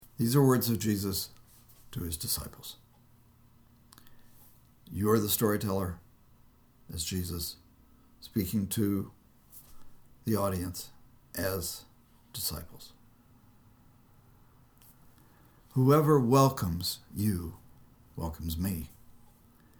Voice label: storytelling